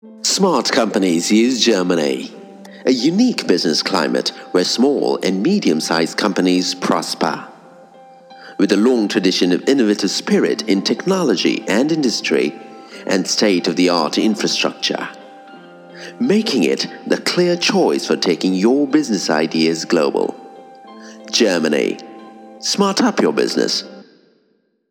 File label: Low Brit